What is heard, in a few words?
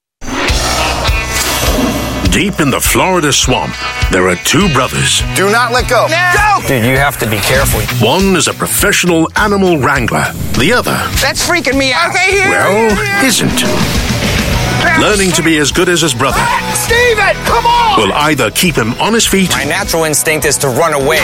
Asian English; Swamp Brothers